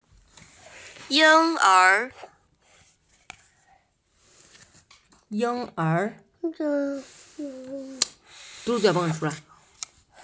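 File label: "ying er", Mandarin Chinese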